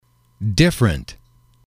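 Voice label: "different", English, male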